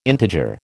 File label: "integer", American